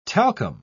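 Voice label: "talcum", British English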